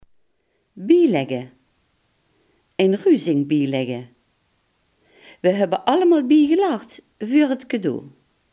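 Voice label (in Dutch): "bijleggen" Limburgish dialect word; bijleggen, bielègke; Ein ruzing bielègke.; Wae höbbe allemaol biegelagd vuuer 't cadeau.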